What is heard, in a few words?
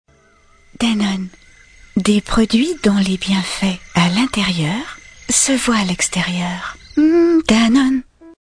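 Young and Whispered, Commercials, French; Danone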